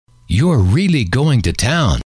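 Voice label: you Re Really Going To Town, male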